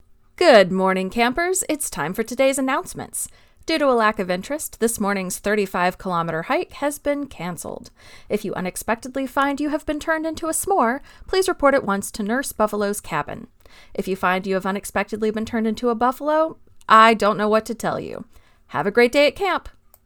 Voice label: announcements